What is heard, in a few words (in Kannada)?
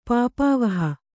"ಪಾಪಾವಹ", Kannada